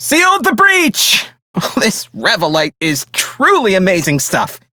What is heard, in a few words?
Crew Fix Breach, Male; Warframe; Railjack; Perrin Sequence